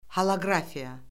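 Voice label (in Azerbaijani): "holoqrafiya", Azerbaijani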